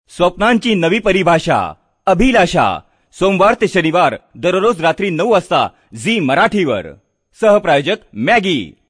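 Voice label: Marathi General; GoLocalise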